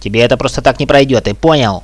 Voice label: oh no, hostage reactions

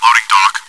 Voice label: "loading dock", radio bot; czero

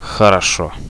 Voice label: win, Russian; bot, radio

